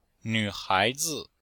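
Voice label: "nv3hai2zi5", Chinese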